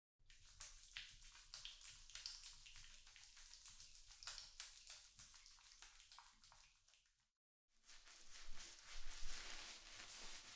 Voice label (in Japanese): ひきこもり姫のシャンプー(BGMなし), ASMR